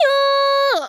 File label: "yo" C#5 long; 命运石之门; 助手